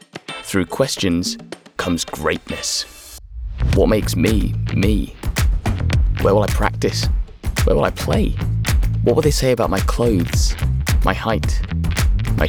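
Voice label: Commercial, RP/English neutral, Cheerful, Young, Upbeat, Engaging, Clear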